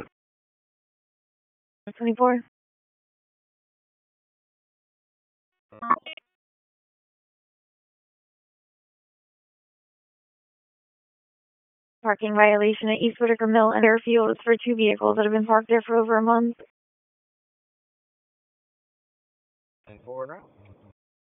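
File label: Police Southeast District Dispatch; Raleigh; wake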